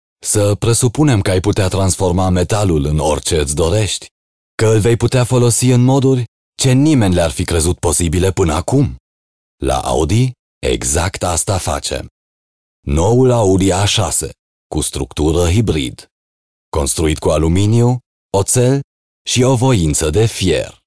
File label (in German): Audi A6 - rumänisch